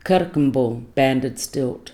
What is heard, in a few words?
"kirrkembul" banded stilt